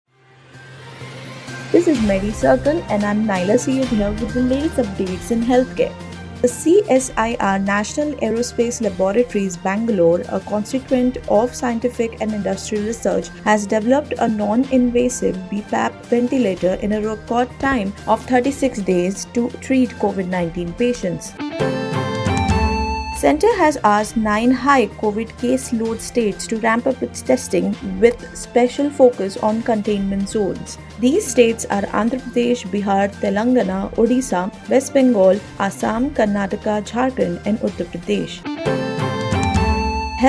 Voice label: english news